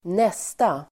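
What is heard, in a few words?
"nästa", Swedish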